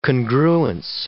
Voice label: "congruence", English